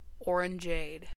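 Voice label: "orangeade", American English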